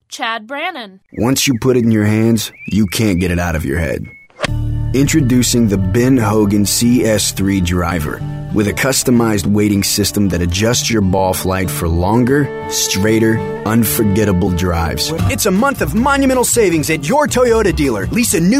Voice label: Commercial

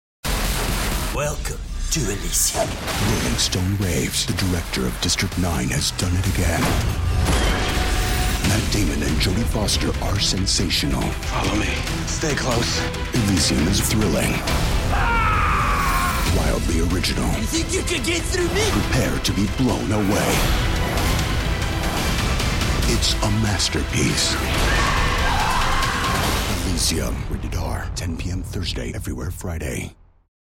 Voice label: trailer

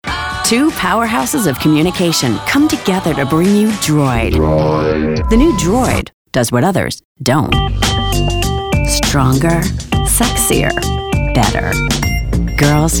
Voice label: Commercial

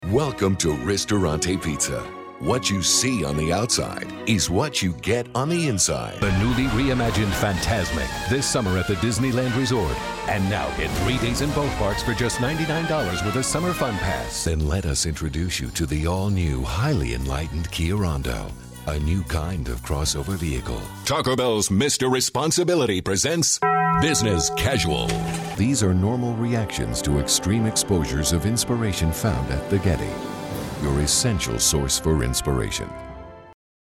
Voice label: Commercial

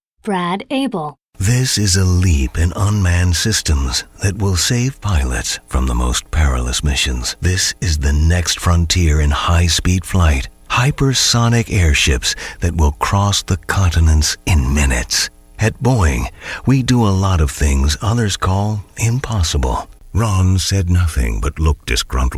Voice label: Narr

